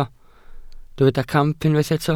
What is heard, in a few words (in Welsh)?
"camp", Welsh